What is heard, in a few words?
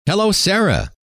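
hello, male; trainer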